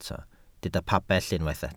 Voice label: "pabell", Welsh